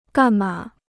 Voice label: "干吗", Chinese